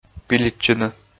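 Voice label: "пилитчыны", Komi, male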